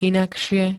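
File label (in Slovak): "inakšie", Slovak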